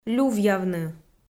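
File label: "лювъявны" Komi, female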